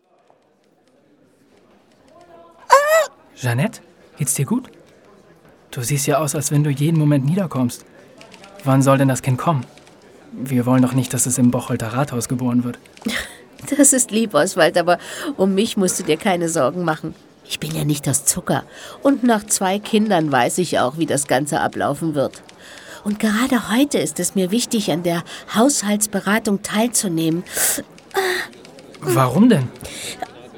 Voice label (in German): deutsch; Café Eilers; Bocholter Bildtafeln; Stadtarchiv